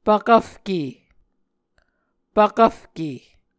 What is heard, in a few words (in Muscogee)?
"pvkvfke", Muscogee